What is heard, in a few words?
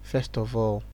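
"first of all", Nigerian Pidgin, male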